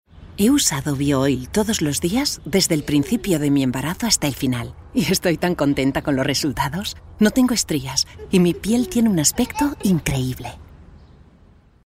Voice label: female spanish gel comercial; ideasonora